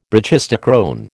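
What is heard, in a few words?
"brachistochrone", American English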